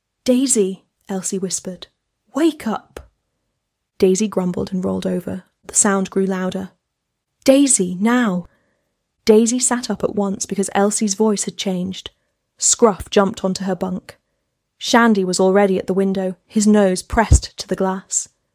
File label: audiobook sample; last train